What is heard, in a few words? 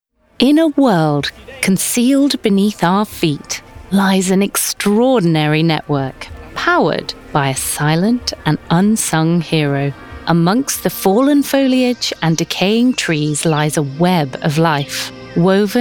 VO DOC